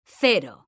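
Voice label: "CERO", Spanish, female; mekanta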